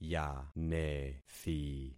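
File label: "yanethi", concatenated syllables; Affixing experiment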